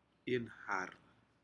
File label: fish inhar, Aneityum